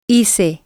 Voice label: "hice", spanish